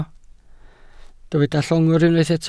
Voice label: "llongwr", Welsh

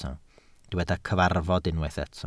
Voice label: "cyfarfod" Welsh

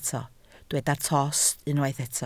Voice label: "tost", Welsh

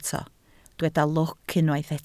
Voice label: "lwc", Welsh

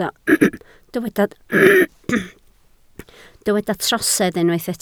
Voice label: "trosedd" Welsh

